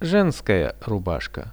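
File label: "women's shirt", Russian; женская рубашка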